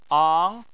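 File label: "ang" Chinese, second tone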